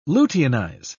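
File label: "luteinize", British English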